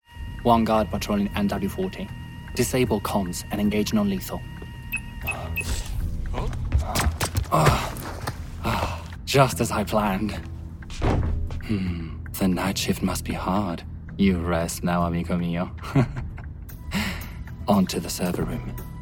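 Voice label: Italian, Accented English Videogame; voice demos; GoLocalise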